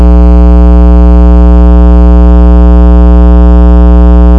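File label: "pick", spoken word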